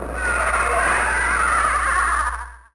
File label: witch